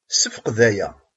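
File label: "Ad ttmeslayent s tefṛansist?", Kabyle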